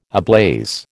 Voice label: "ablaze", American

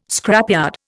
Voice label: "scrapyard", Indian

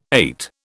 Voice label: "ait", British

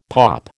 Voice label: "POP", American

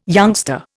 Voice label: "youngster", Indian

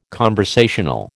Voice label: "conversational", American

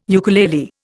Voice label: "ukulele", Indian

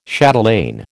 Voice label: "chatelaine", American

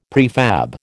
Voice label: "prefab", American

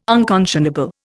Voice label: "unconscionable", Indian

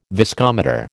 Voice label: "viscometer", American

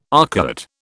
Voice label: "arcuate", British

